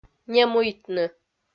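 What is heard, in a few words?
"немуйтны", Komi, female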